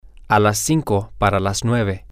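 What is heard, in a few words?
Spanish, male; at Five to Nine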